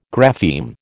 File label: "grapheme", American